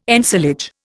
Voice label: "ensilage", Indian